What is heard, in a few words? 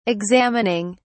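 "examining", British English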